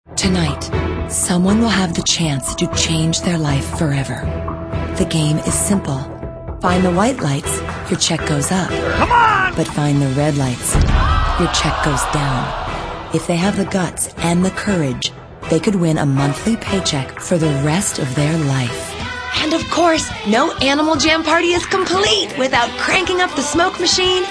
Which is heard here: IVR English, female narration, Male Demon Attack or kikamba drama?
female narration